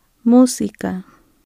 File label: music, spanish